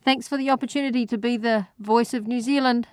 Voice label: New Zealand sentence; transcription exercises; newzealand sounds